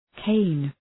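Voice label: "cane", English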